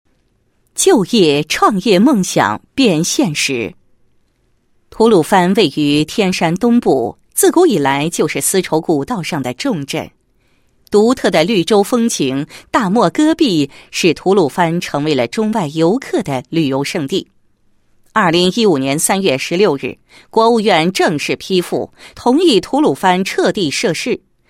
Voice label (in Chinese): 女 专题; 就业创业梦想变现实